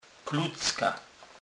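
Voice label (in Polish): "klucka", Kurpie dialect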